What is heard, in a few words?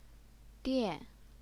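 "dian", fourth tone, Chinese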